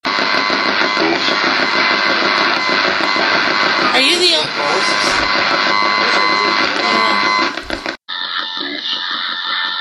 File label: radio voice name garbled